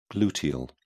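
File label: "gluteal", English, British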